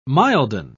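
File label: "milden", British English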